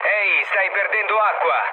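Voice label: Italian damaged water mood low, speech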